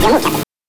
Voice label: "CAPTAIN", VOX; NES DPCM; MIDINES